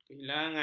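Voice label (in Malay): "kehilangan", Malay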